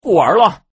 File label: Man giveup